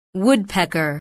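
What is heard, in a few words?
"woodpecker", English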